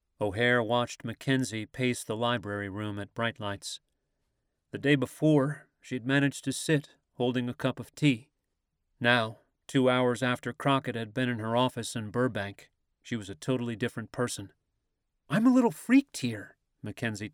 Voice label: Accent Irish; Audiobook Narrator